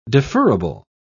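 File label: "deferrable", British English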